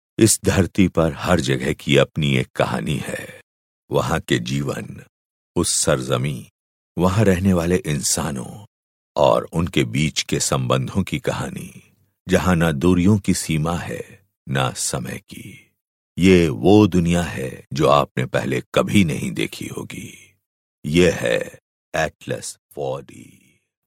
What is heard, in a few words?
HI M narration